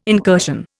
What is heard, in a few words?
"incursion", Indian